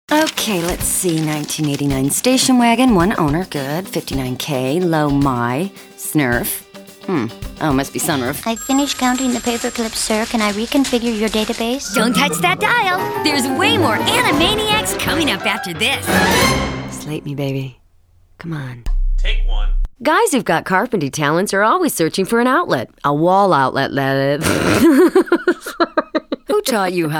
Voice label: character